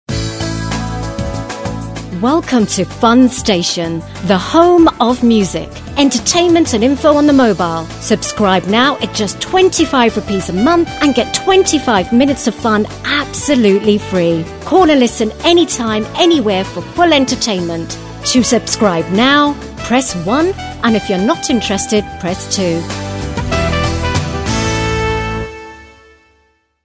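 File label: promo uk English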